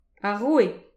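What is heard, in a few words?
"ar roue", Breton; petit precis de prononciation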